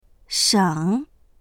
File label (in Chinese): "sheng3", voices; 省; 省く、節約する